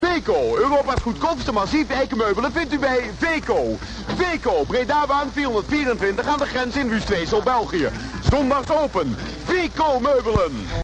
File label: commercial